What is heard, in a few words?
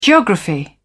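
"geography", British English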